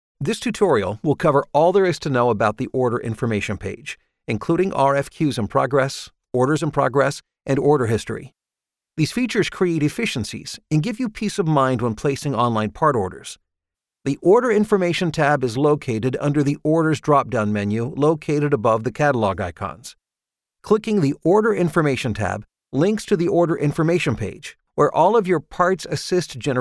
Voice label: English